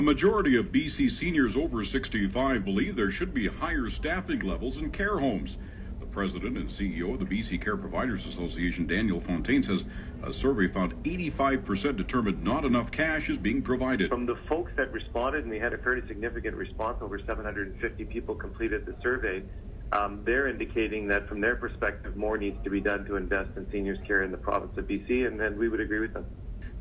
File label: Radio